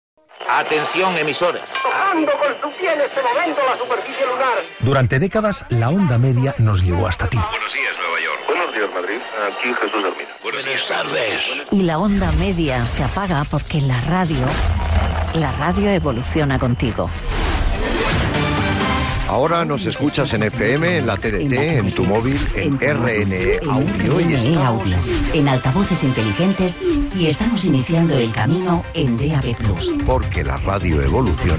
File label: RNE MW close message; spain